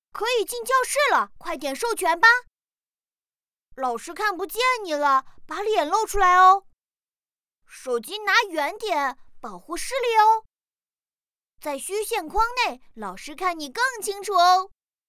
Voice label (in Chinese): 男童乖巧